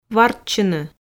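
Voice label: "варччыны", Komi, female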